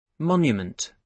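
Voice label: "monument" English, British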